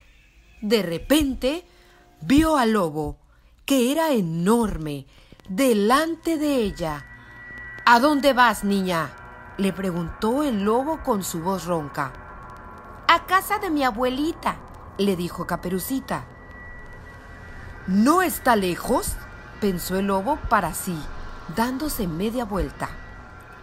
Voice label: cuento infantil caperucita roja y el lobo feroz español

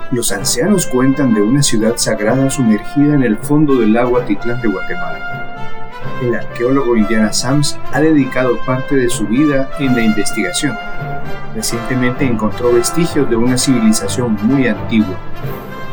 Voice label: Narrativa con fondo

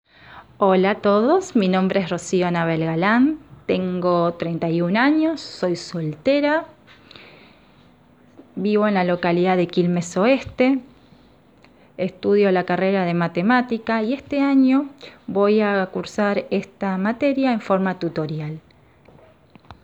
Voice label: Nota de voz

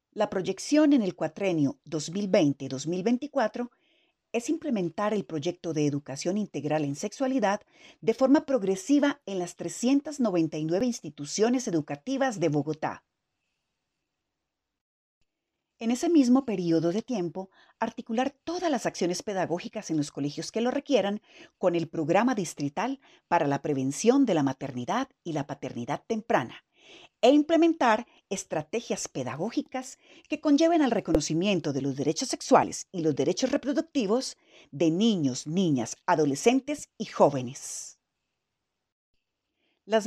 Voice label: voz en off; presentacion Proyecto parte2

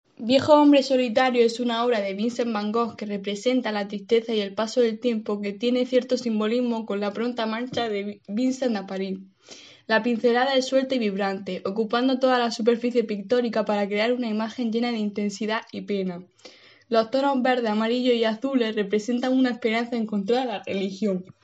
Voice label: viejo hombre solitario

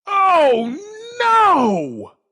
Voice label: Efecto de sonido oh no

